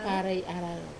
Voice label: "pare ai raro", mangrove forest, wamesa